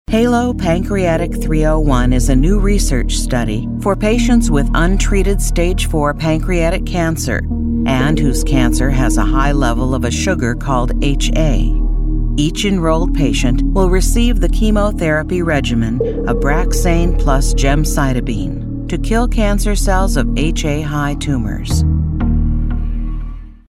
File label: narration variety pancreatic cancer research narration